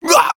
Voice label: Voice damaged

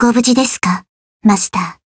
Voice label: voice jp

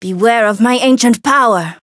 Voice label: Voice chant

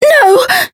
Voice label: Voice damaged

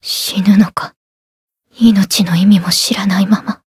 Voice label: Voice retire jp

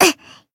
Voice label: damaged, jp; nagi

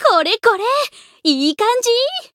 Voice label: growth jp; yazuki

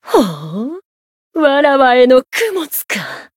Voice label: Voice encount fear jp

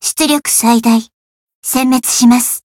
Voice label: variable chant, jp

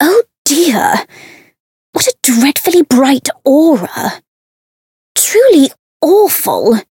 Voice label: story

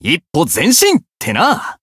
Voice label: voice jp

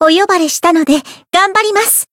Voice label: variable chant jp; sincere

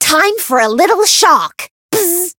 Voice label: chant

